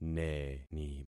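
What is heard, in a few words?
"neni", concatenated syllables; Affixing experiment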